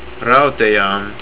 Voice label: "TRAINSTATION", Estonian

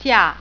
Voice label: "summer", Mandarin

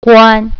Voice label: closed, mandarin

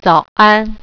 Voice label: "morning", Mandarin

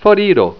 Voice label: "foriro", esperanto

